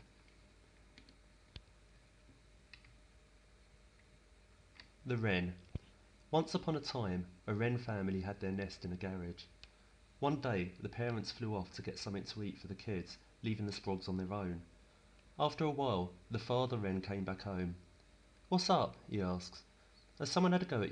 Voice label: estuary